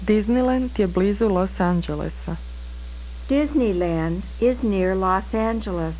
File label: serbo